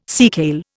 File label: "seakale", Indian